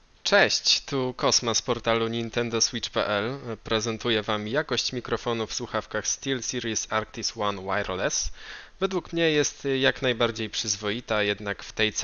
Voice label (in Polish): próbka mikrofonu